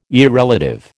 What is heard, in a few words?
"irrelative", American